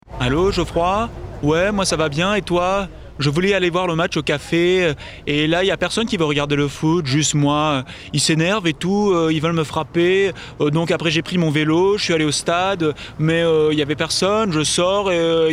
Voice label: french others; Royaume-Uni

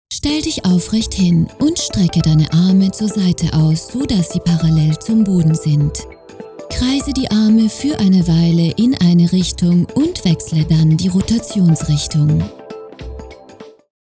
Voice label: german promos

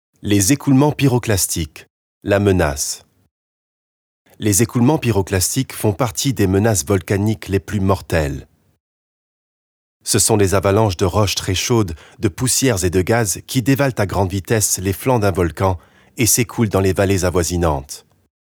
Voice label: French documentaries

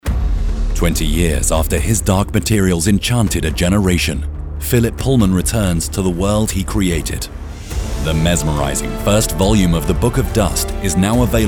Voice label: english british podcasts

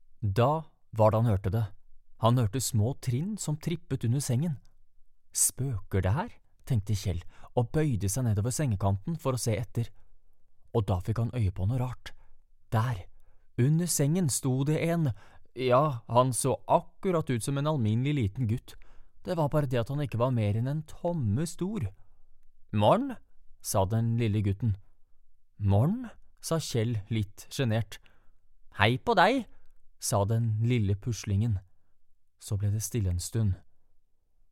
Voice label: norwegian audiobooks

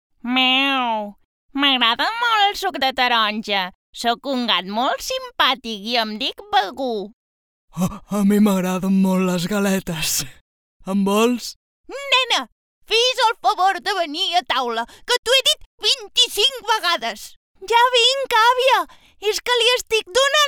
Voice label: catalan animation

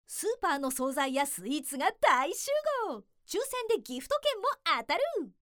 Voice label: japanese others

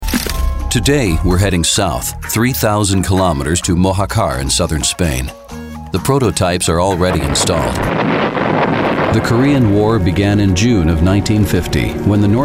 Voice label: english american documentaries

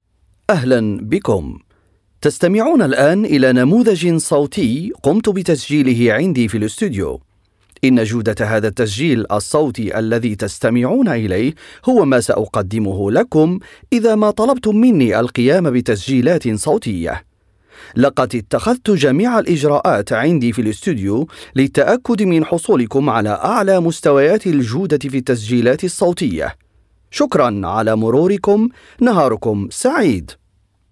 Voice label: Arabic commercial

